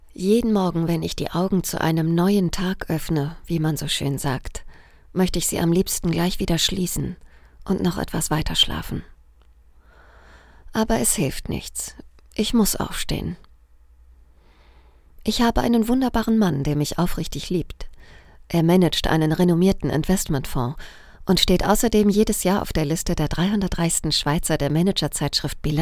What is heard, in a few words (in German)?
hoerbuch untreue icherzaehlerin